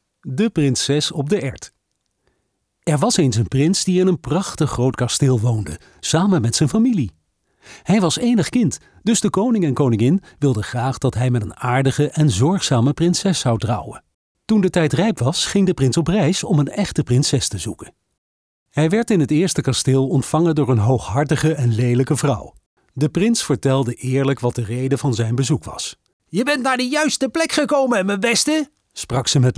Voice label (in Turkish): Hollanda Erkek Felemenkce; hollandaca; seslendirme